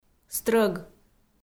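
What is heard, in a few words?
"стрӧг", Komi, female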